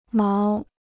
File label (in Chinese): "毛", Chinese